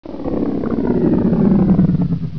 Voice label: pain